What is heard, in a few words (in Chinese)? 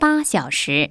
chinese 5 hour; samsung YP-U5; Audio User Interface